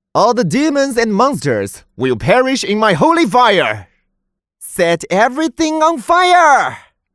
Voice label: 英男 角色; 祝融

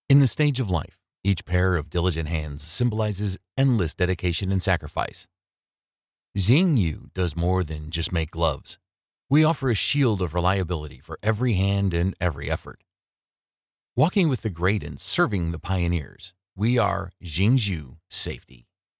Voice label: 磁性 宣传片

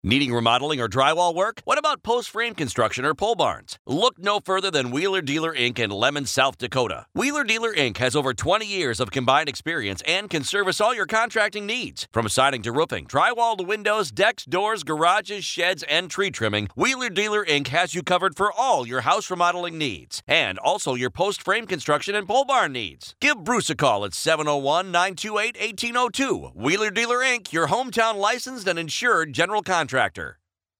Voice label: 外籍英男 广告 轻快有感染力

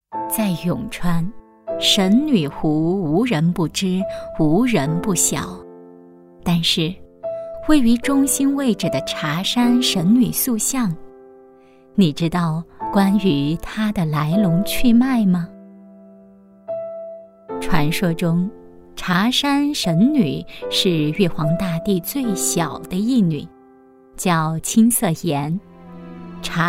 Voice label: 女 讲述; 永川神女湖茶山

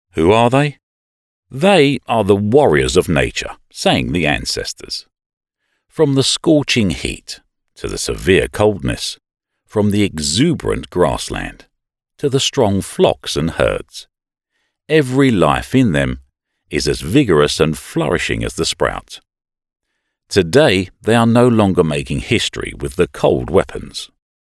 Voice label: 专题片中英文录音; 科尔沁牛业

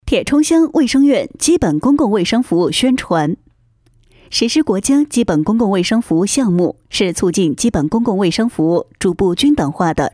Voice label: 专题 政企宣传, 国语女声配音

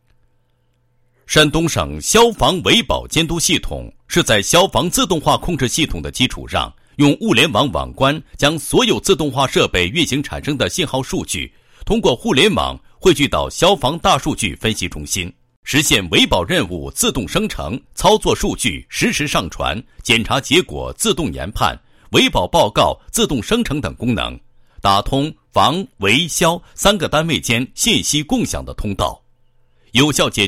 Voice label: 男32-解说词; 山东省消防维保监督系统

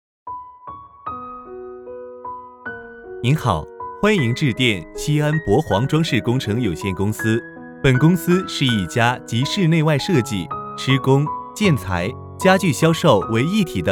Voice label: 男 彩铃; 帛煌装饰

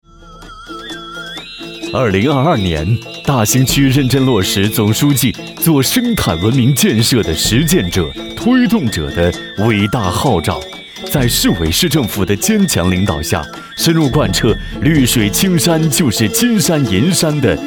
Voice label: 男 宣传 形象; 大兴区形象样

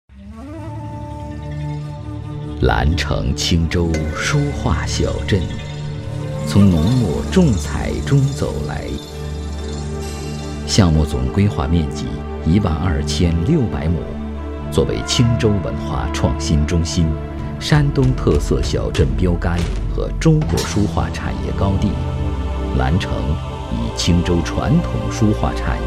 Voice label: 男 抒情专题 诗画抒情风格

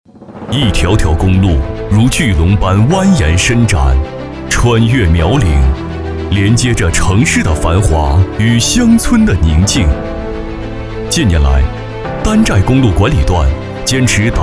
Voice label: 男, 党建, 大气浑厚; 奋进公路梦

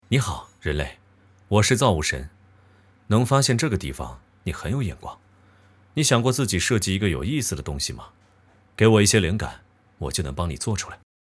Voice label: 男 角色 神像

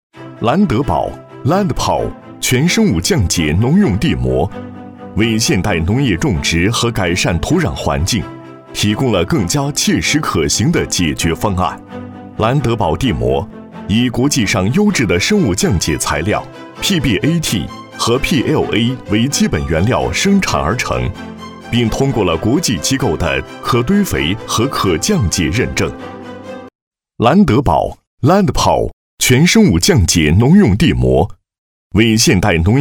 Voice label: 男 专题 地膜

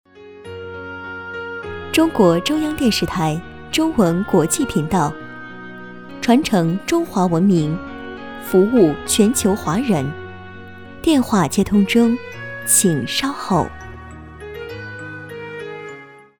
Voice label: 女 彩铃类; 中国中央电视台; 中文国际频道

